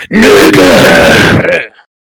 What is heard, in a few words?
very friendly hello